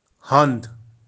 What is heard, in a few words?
"hand", Kashmiri